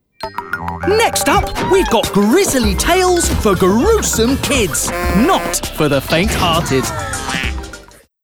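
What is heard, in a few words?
Exciting, Enthusiastic, Young; characters animation; Grizzly Tales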